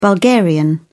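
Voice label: "bulgarian", British English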